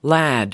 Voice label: "lad", us

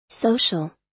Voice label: "social", us

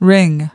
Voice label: "ring", us

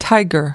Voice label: "tiger", us

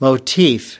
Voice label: "motif", us

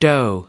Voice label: "doe", us

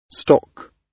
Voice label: "stock", gb

